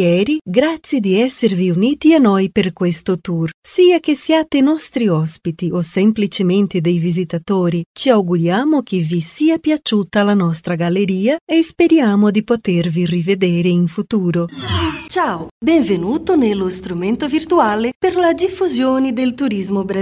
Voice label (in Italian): italiano